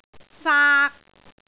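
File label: "saak3", Cantonese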